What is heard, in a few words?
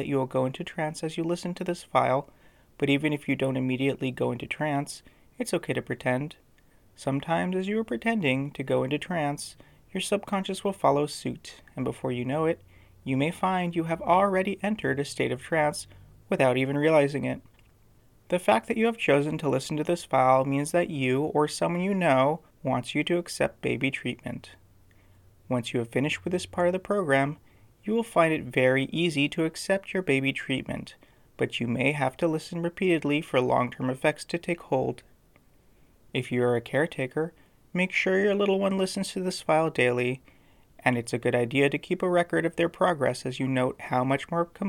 diaper training binaural, hypno; Audio Binaural; Diaper Training 4 Truth Serum